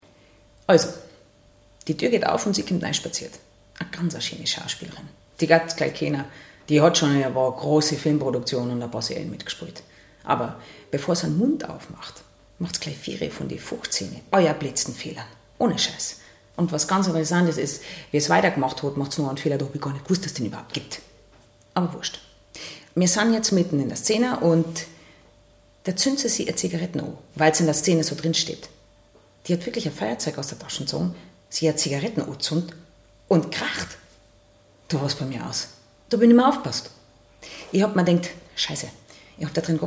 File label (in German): Bairisch; LOFT VOICES